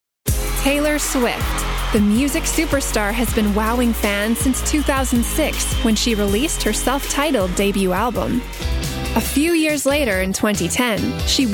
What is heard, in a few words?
english canadian narration